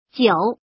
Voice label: "jiu", female